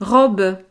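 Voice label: "robe", French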